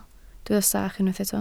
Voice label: "sach", Welsh

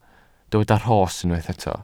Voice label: "rhos", welsh

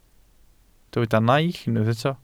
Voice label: "naill", welsh

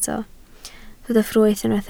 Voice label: "ffrwyth", Welsh

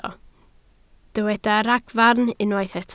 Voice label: "ragfarn", Welsh

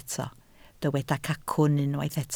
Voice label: "cacwn", Welsh

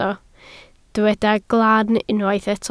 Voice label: "glan", Welsh

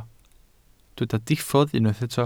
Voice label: "diffodd", Welsh

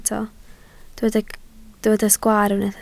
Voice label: "sgwaar", Welsh

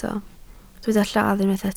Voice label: "lladd", Welsh

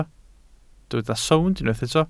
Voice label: "sownd", Welsh

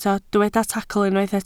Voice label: "tacl", Welsh

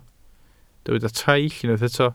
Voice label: "traill", Welsh

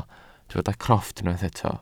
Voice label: "crofft", welsh

